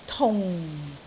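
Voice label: "tung4", Cantonese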